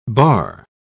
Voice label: "barre", pronounce

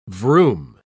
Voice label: "vroom", pronounce

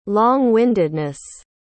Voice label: "long-windedness", pronounce

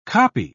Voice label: "kopje", pronounce

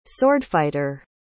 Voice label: "swordfighter", pronounce

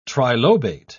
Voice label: "trilobate", pronounce

